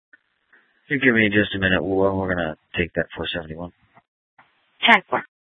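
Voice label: Sheriff, Parks, Sheriff radio scanner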